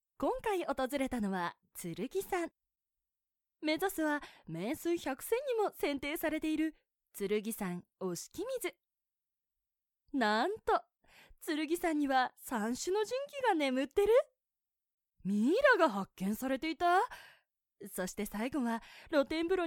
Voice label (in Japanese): ナレーションサンプル